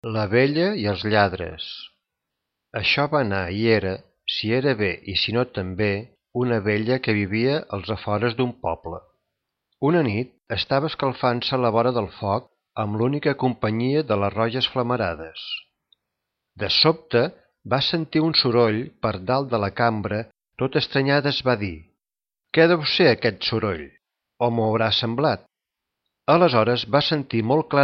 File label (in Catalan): Narracio